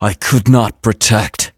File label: defeat, en; Cookie Run